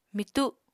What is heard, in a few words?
"mitu", Apatani